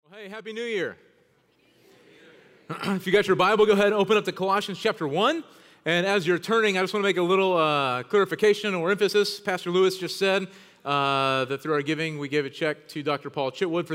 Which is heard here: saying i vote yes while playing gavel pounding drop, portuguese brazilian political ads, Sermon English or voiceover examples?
Sermon English